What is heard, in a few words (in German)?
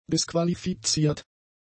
"disqualifiziert", German; adjectives